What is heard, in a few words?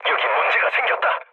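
Korean aircraft damaged mood low; speech; War Thunder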